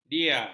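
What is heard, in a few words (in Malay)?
"dia", Malay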